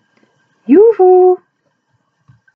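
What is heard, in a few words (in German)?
"juhu", German interjection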